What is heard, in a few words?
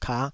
"kah5", taiwanese syllables